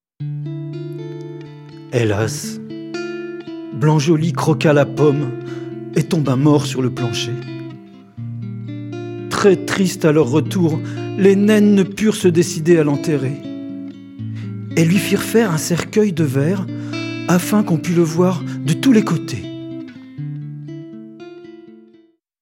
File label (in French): chanson narration